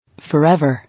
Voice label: "Forever", English